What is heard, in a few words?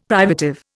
"privative", Indian; Dictionary